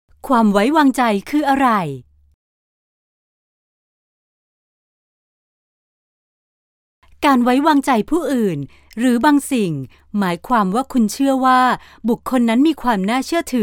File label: Thai F; Demo